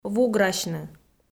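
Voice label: "вуграсьны", Komi, female; audio dict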